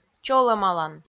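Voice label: "чолӧмалан", Komi, female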